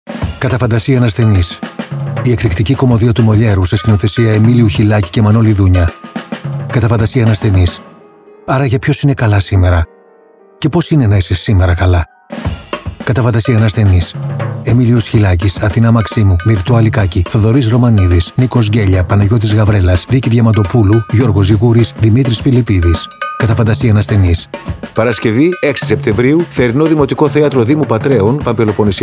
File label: New Radio Spot; Κατα Φαντασιαν Ασθενης; Πάτρα